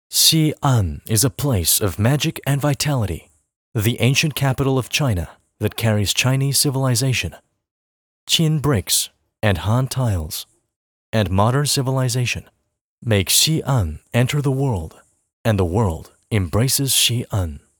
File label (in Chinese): 企业宣传片英文配音; ROCKTECH